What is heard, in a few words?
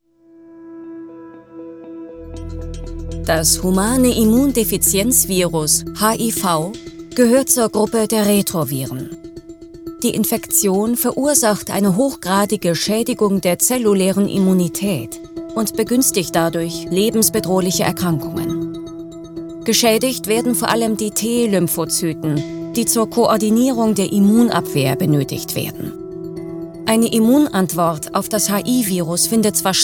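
german e-learning, female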